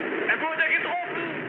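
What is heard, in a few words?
German aircraft destroyed mood high, speech; War Thunder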